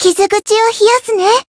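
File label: heal, Japanese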